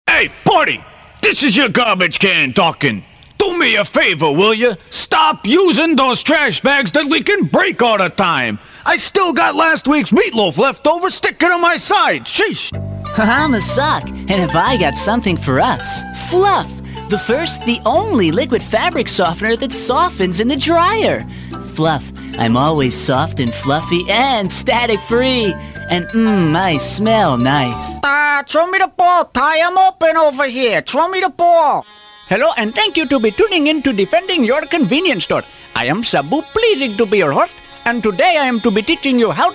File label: character voices